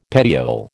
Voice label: "petiole", American